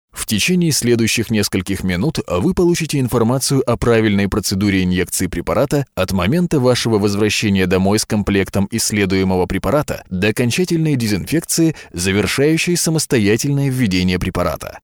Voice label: Russian Male